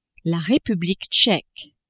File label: "Republique tcheque", French